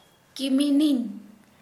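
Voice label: daughter in law, Santali, female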